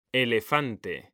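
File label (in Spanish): "elefante", Spanish; mekanta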